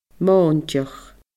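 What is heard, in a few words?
"mòinteach", Scottish Gaelic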